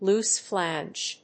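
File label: "loose flange", English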